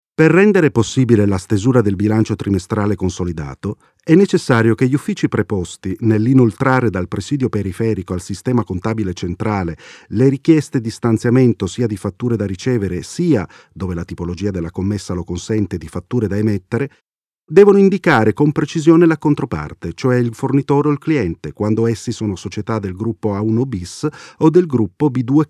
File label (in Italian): ITALIANO DINAMICA